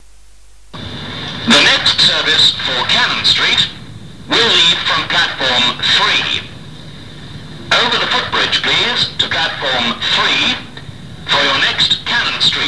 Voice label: London Bridge pre recorded platform announcement; year 1988